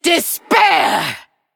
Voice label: Female Undead